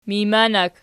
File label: "mijmanak", Archi